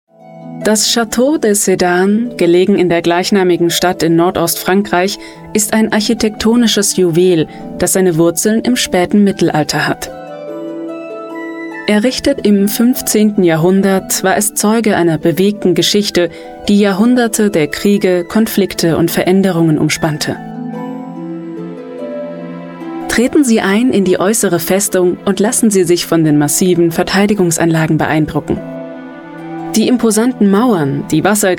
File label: german voice over female elearning